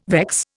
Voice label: "vex", Indian